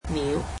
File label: "bird", cantonese